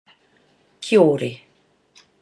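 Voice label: "kiore", Māori; rat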